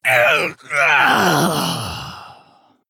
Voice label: Orc Death